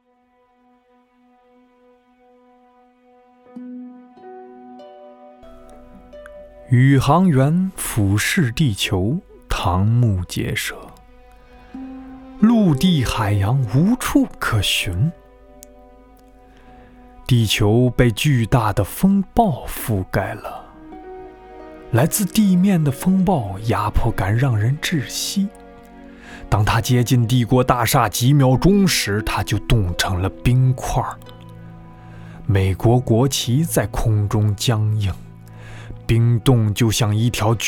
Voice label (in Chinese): 电影个性解说